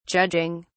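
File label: "judging", British English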